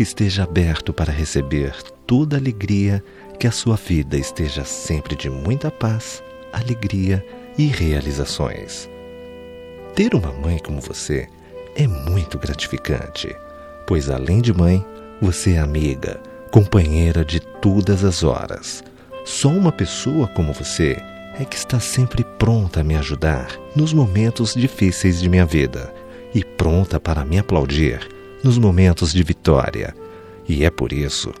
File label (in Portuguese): Feliz Natal pra Mae, M